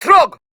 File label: Attack, Grineer Queens Guards, Battle Barks, en; Warframe